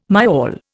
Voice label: "myall", Indian